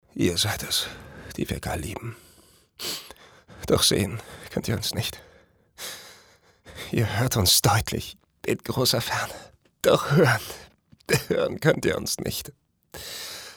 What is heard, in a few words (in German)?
traurig, maenner